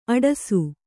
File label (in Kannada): "ಅಟ್ಟಸ", Kannada